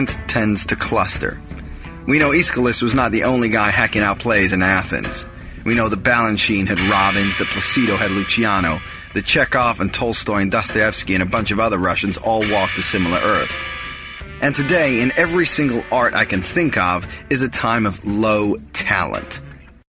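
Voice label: Narration US Accent Hollywood, male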